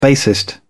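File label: "bassist", British English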